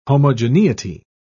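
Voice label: "homogeneity", British English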